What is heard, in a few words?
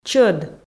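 "чӧд", Komi, female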